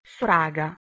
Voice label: "sraga", Archaic Bulgarian